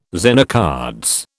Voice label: "Zener cards", British